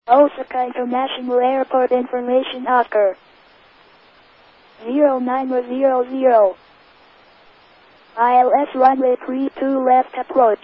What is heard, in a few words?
ATIS, Japan; osaka; Itami